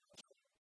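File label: "томысянь", Komi, female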